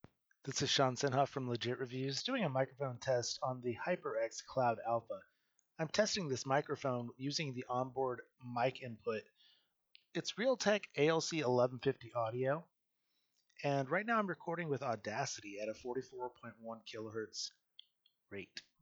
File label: onboard audio; HyperX Cloud Alpha